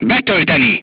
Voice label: voice message load, crew tanks hungarian

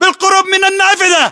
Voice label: landmark near window, battle chatter, english

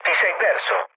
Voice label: bomb failure mood low, speech it; War Thunder

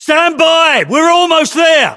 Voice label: voiceovers UK; ModernWarfare; localized english; Cargoship

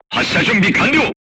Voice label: voice message charged, crew tanks japanese

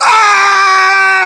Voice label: melee charge, voiceovers english

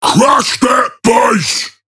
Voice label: Ork Quick Commands Enemy Base; Warhammer 40000

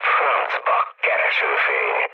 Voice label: searchlite, mood low, speech hun; War Thunder

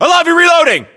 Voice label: inform reloading, US

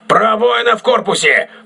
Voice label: voice message hull breach, crew ships russian

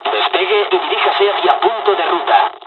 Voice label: airfield takeoff, dialogs spanish; War Thunder

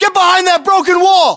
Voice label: order cover wall broken, battlechatter us

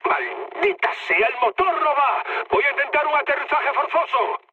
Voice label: damaged engine, mood high, speech; War Thunder

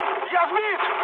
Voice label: aircraft destroyed, mood med, speech ru

